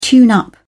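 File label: "tune up", British English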